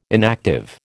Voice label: "inactive", American

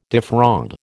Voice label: "defraud", American

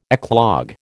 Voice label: "eclogue", American

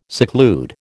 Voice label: "seclude", American

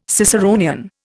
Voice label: "Ciceronian", Indian

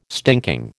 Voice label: "stinking", American

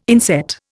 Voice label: "inset", Indian

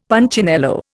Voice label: "Punchinello", Indian; Dictionary

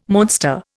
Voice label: "monster", Indian

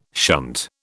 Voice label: "shunt", British

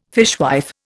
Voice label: "fishwife", Indian

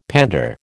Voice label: "pander", American

